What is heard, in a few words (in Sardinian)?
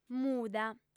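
"muda", female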